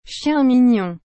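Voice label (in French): "chien mignon", French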